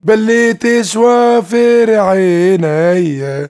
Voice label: MAN VOICE, Arabian Traditions; USB Soundscan vol.43; AKAI

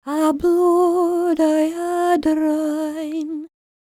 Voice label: WELSH F, World Voices

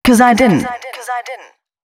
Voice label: female spoken hooks, dance ultra voice

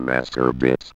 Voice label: ROBO VOX; Masterbits - Tekkno Trax

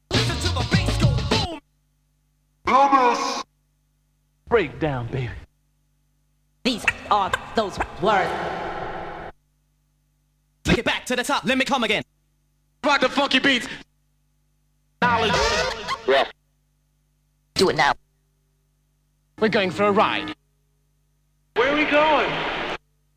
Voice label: Vocal Drops; Kniteforce; 1000 Samples Volume 1

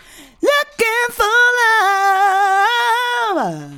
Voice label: LOOKIN FOR, FEMALE VOICE; Best Service; Hallelujah

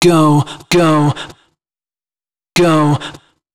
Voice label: male d#130, spoken hooks

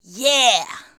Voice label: "Yeah", shout; Best Service; Hyper Dance

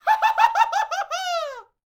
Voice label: MEXICO VOICE, MEXICAN; ILIO; Vocal Planet VOL-5

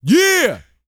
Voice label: "YEAH" F; R&B & Dance; HIP-HOP; ILIO; Vocal Planet

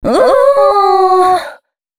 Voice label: female c#133, adlips; Mutekki Media; Dance Ultra Voice VOL-1

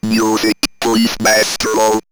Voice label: Robot Music Please, robot vox; X-Static Goldmine

